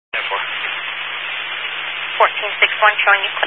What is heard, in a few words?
Highway Patrol, Phoenix Metro West Area, scanner